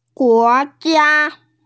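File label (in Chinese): "guo2jia1", Mandarin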